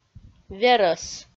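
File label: "верӧс", Komi, female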